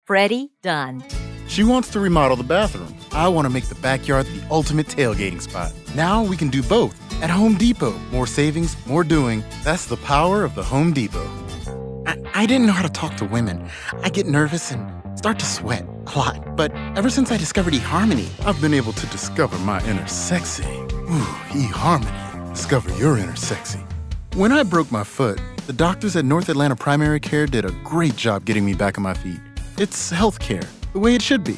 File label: commercial, voiceover